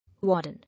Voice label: "Warden", English